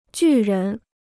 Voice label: "巨人", Chinese

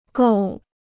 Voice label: "够", Chinese

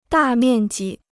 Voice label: "大面积", Chinese

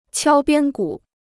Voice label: "敲边鼓", Chinese

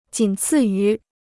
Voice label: "仅次于", Chinese

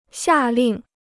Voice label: "下令", Chinese

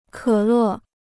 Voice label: "可乐", Chinese

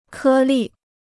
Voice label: "颗粒", Chinese

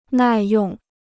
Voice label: "耐用", Chinese

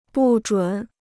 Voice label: "不准", Chinese

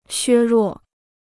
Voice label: "削弱", Chinese

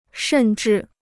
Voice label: "甚至", Chinese

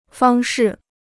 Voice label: "方式", Chinese

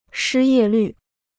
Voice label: "失业率", Chinese

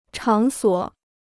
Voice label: "场所", Chinese

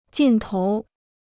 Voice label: "劲头", Chinese

